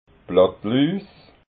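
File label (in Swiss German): "blattluus", Alsatian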